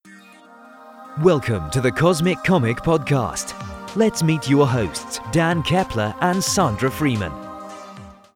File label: Podcast UK